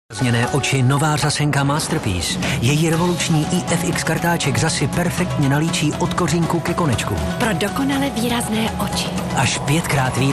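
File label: Male Czech commercial mix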